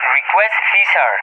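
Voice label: "Request CSAR", English, Spain; DCS; Allied Flight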